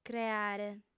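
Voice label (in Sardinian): "creare", female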